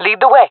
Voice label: following friend, fem; player vo jungle fem